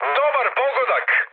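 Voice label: Serbian player killed enemy mood high, speech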